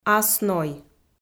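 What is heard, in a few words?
"ас ной", Komi-Zyrian, female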